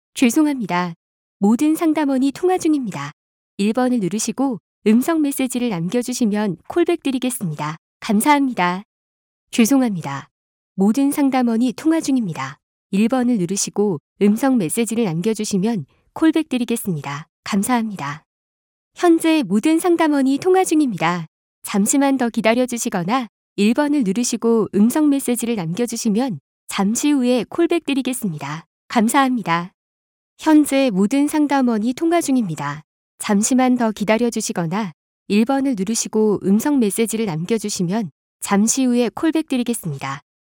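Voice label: Korean, female, elearning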